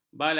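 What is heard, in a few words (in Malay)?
"balada", Malay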